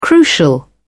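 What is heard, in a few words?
"crucial", British English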